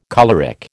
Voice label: "choleric", American